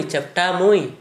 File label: "tʃepta-muj", Gta, Dialect: Hill; flat-nosed